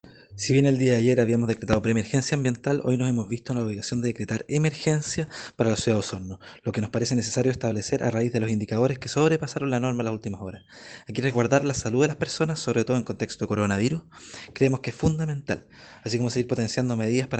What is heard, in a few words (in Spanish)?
Cuña